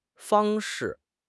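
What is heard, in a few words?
"fang1 shi4", Chinese; 汉语口语新时代-初级下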